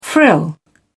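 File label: "frill", British English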